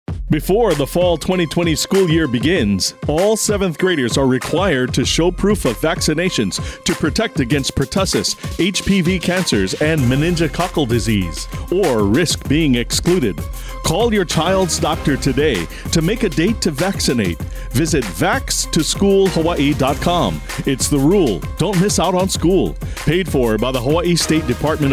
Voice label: radio spot